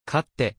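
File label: "カッテ", Japanese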